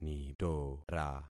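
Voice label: "nitora", concatenated syllables; Affixing experiment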